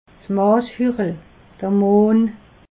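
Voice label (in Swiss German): "mashuchel", Alsatian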